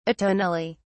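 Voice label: "atonally", English, uk British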